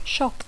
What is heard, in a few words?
"shop", English